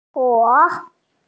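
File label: "zi3 shu3", Mandarin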